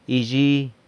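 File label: "yzhi", tomorrow, San Lucas Quiaviní Zapotec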